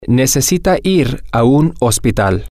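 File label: he she needs to go to a hospital, spanish, male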